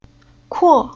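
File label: "Kuo", Chinese syllable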